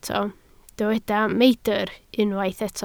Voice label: "meidr", Welsh

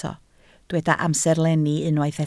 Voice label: "amserlenni", Welsh

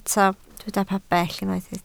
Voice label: "pabell", Welsh

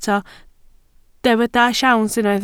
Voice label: "siawns", Welsh

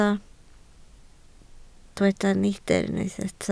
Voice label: "neidr", Welsh

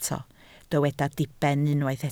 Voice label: "diben", Welsh

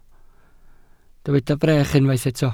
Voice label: "brech", welsh

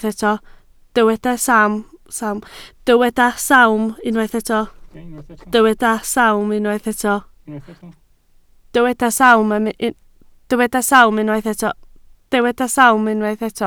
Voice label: "sawm", Welsh

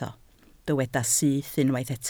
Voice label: "syth", Welsh

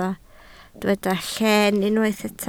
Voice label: "llen", Welsh

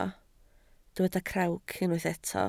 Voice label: "crawc", Welsh

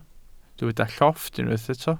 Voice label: "llofft", welsh

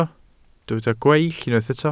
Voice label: "gweill", Welsh

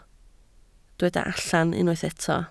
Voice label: "allan", Welsh

